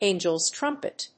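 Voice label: "angels trumpet", English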